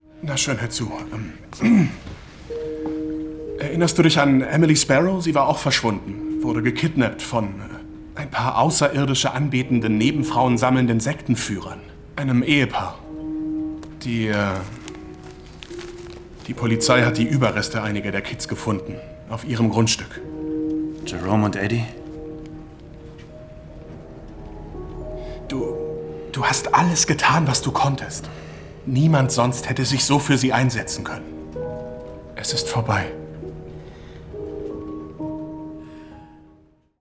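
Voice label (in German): Synchron, Sprecher, Männer; iZombie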